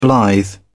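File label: "blithe", British English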